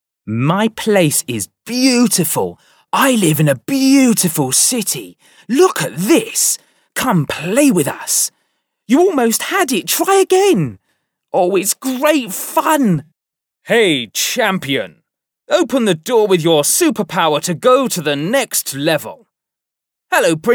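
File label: British English male children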